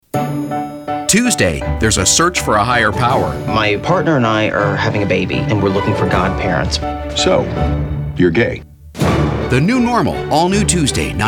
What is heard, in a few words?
Promo